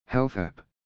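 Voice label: "health app", English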